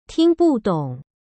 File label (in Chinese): "聴不懂", 中国語; ティンブートン; tīngdǒng; 聞いてても意味が分かりません